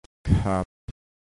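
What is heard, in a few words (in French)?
"khaat", ton bas, thailandaise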